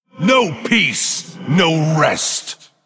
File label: Male Human Play